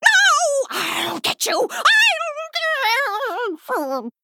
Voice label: Female Troll Death; Crone

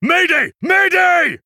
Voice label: Male Human Death

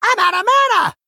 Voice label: Male Gnome Attack

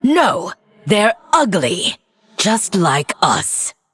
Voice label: Female Forsaken; Story Guff Mission4 Exchange C

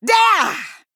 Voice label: Female Troll Attack

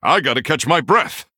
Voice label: Male Human ERROR I ATTACKED

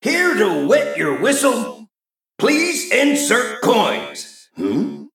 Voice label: Male Mech Bartender Picked

